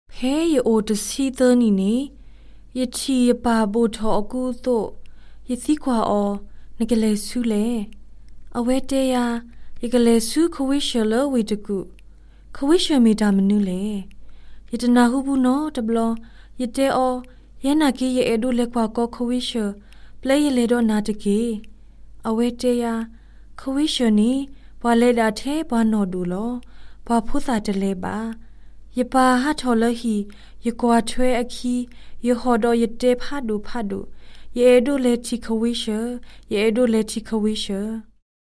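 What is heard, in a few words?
Burmese Karen, Female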